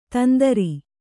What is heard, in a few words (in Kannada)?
"ತಂದ್ರ", Kannada